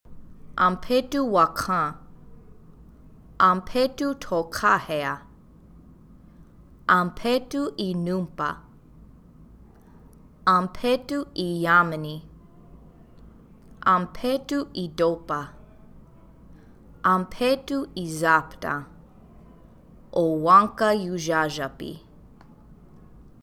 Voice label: Dakota Days of the Week